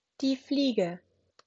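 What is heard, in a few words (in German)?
"Fliege", German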